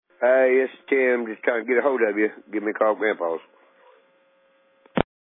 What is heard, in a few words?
Voicemail